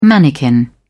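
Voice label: "mannikin", British English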